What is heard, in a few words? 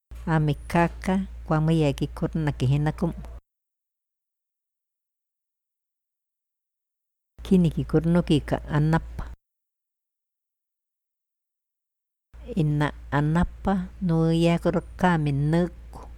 iquito verbos